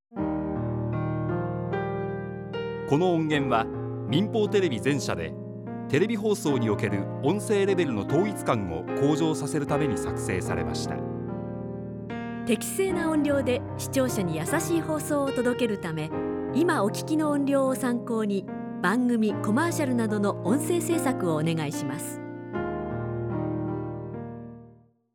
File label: narration and BGM